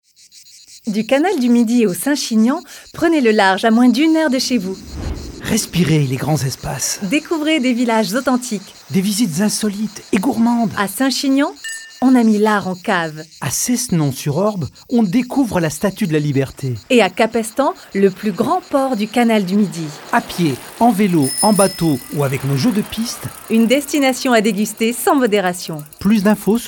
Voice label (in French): spot radio; canal du midi